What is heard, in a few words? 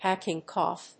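"hacking cough", English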